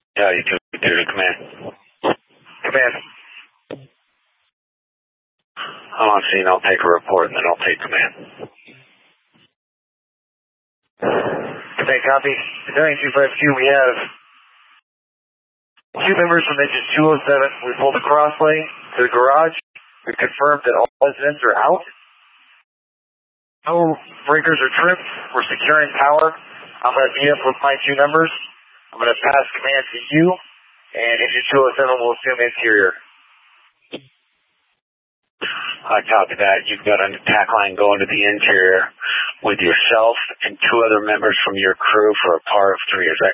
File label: Fire Dpts VHF 154.800 Patch, scanner